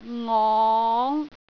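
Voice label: "ngong5", Cantonese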